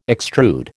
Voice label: "extrude", American

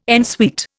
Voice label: "en suite", Indian

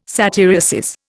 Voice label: "satyriasis", Indian

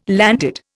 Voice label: "landed", Indian

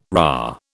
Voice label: "rah", British

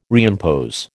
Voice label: "reimpose", American

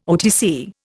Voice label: "OTC", Indian

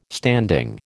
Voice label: "standing", American

